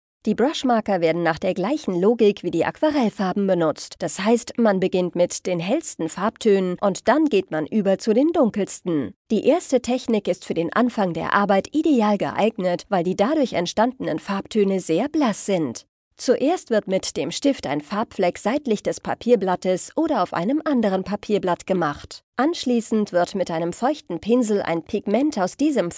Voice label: GERMAN